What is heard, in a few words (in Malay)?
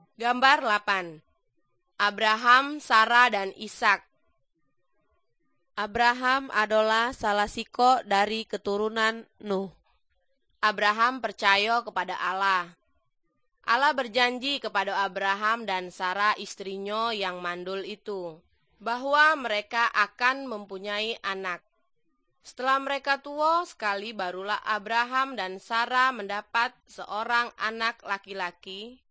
good news malay jambi